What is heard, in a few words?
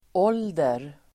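"ålder", Swedish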